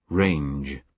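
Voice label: "range", British English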